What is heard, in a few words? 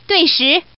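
Woman, pair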